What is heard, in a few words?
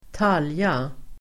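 "talja", Swedish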